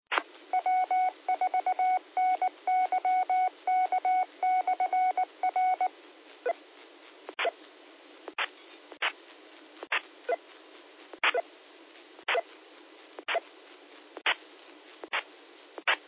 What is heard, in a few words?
qso rec simplex logic